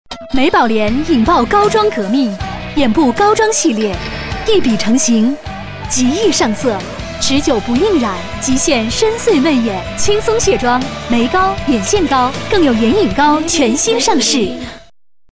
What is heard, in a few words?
VOICES ENGLISH Male Adult; KERSOUND